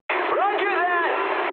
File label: eng chat, speech eng